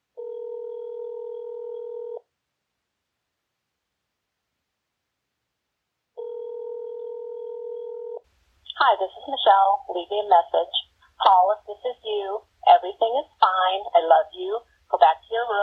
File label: Voicemail